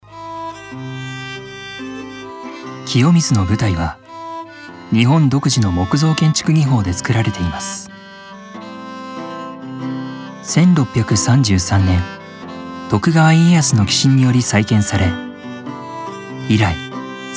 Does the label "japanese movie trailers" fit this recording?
Yes